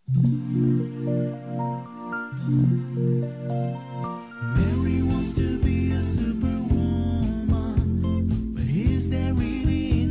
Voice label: Natal Romântico Ficante Masculino; Telemensagens; Natal e Ano novo

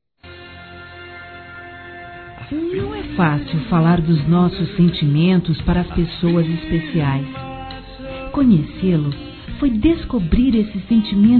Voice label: Bom Te Conhecer Feminino, Românticas; Telemensagens

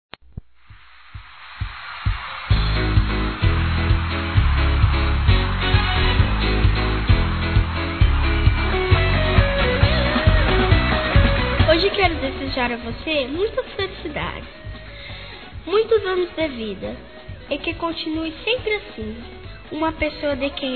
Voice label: Aniversário Geral Infantil Feminino; Telemensagens

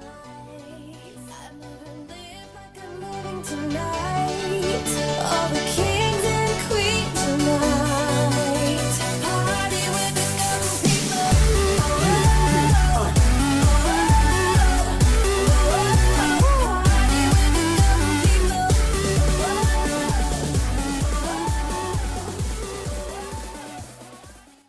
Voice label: FILHA CRIANÇA M

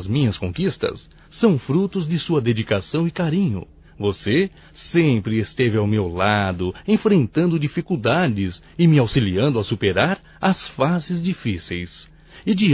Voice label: ANIV Romântico (M); Aniversarios; Telemensagens 2017

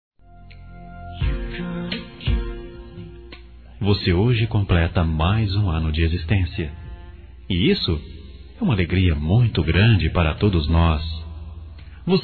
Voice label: aniversário geral, Telemensagens, masc; Aniversários; Aniversários diversos

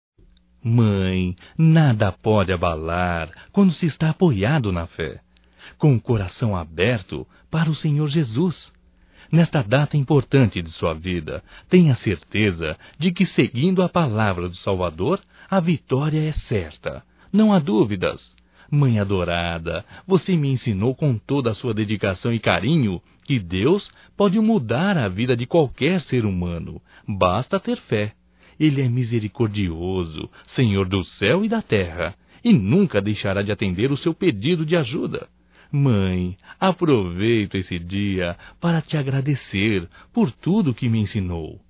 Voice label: Aniversário Mãe Evangélico Masculino; Aniversarios; Telemensagens 2017

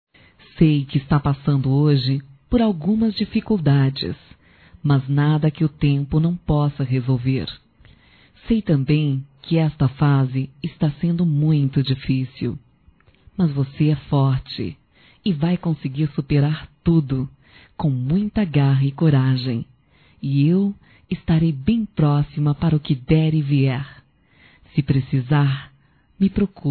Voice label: Otimismo Feminino; Telemensagens 2017

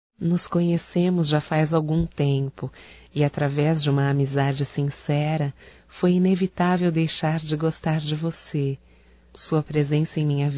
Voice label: FICANDO(F), Românticas fem

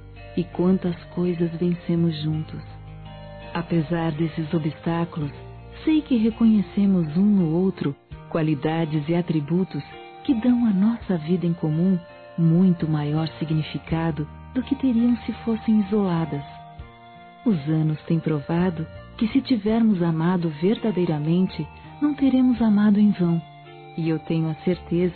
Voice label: CASAM. ROMANT. F; Aniversários; Aniversários diversos; fem

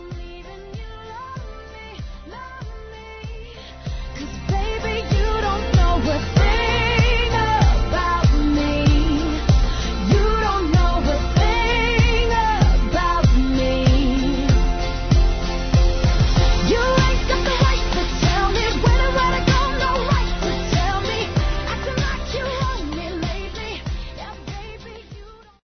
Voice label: Páscoa Para Afilhados Feminino; Telemensagens

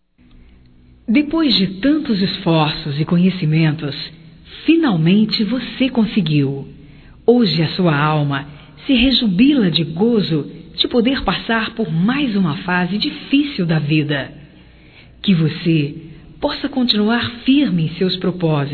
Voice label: Aprovado no Vestibular Feminino, Telemensagens

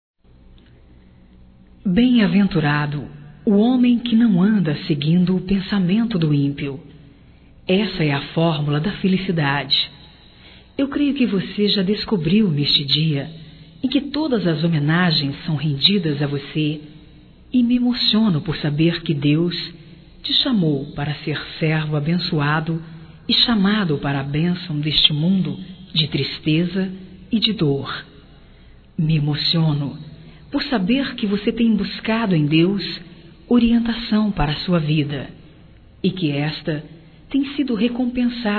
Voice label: Aniversário Gospel, Telemensagens; Telemensagens 2017; Aniversarios

